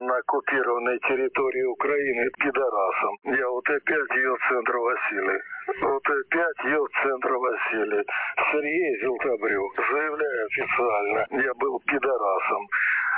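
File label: 7050.0kHz, radio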